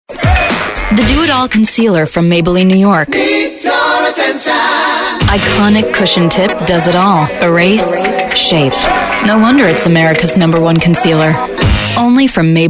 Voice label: english american voice over female commercial

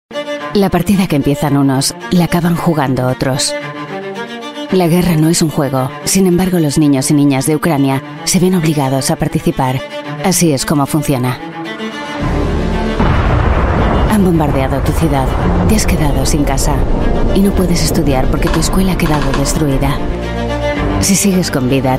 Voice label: spanish voice over female narrator

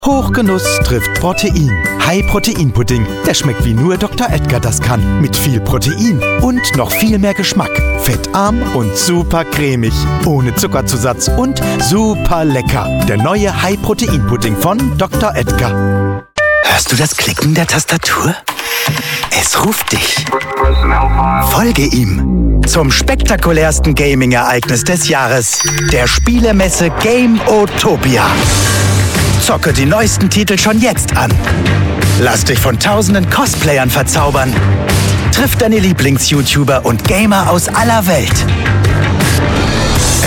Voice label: german voice over male commercial

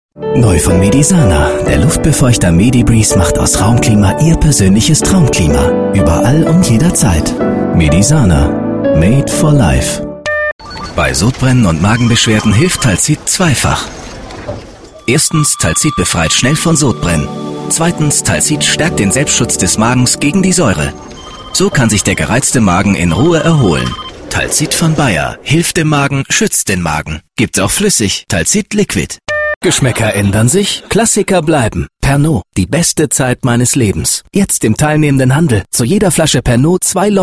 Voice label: german voice over male commercial

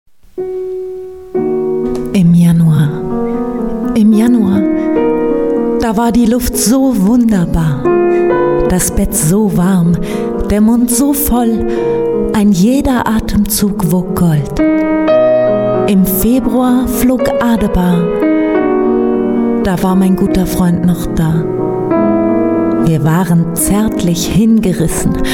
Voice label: german voice over female poem

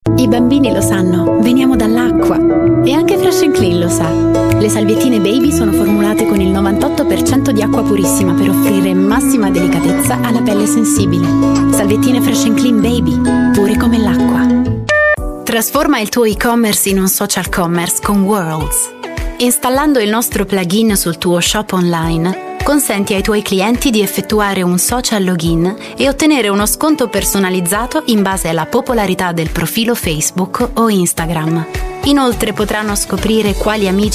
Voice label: italian voice over female commercial

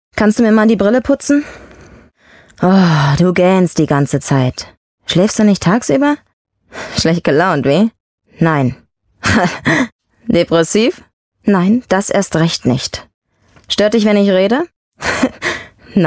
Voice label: german voice over female audiobook

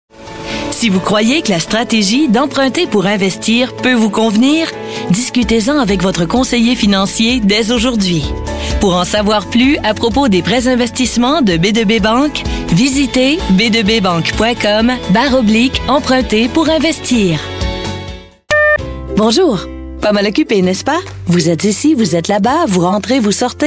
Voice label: french voice over female narrator

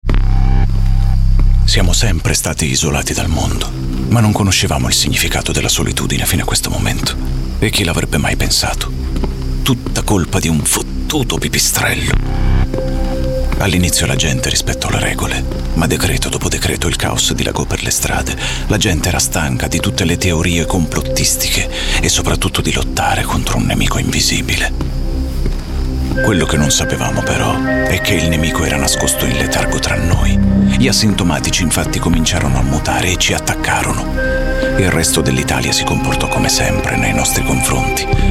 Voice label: italian voice over male documentary

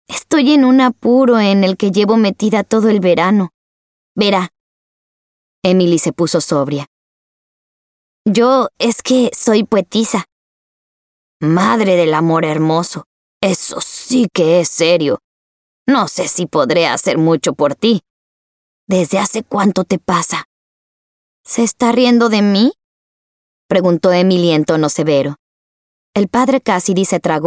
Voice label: spanish voice over female audiobook

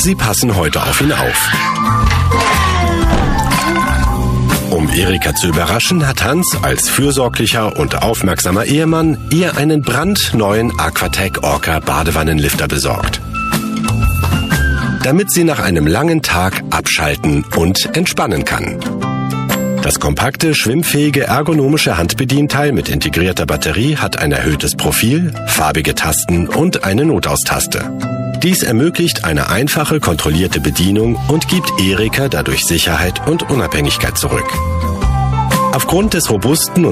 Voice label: german voice over male narrator

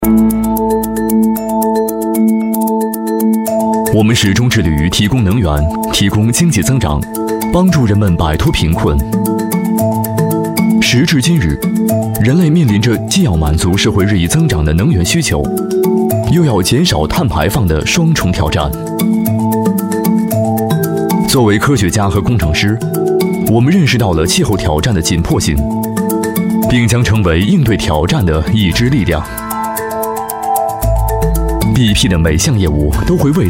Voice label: chinese voice over male narrator

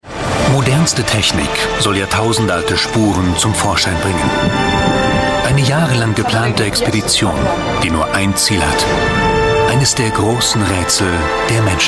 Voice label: german voice over male documentary

